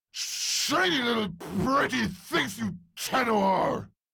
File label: Death Squad Taunt; Vem Tabook; Warframe; en